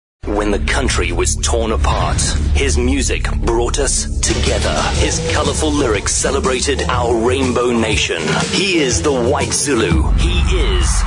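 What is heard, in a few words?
English South African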